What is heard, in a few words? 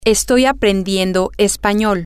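i am learning language, spanish, female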